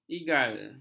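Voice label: "igal", Malay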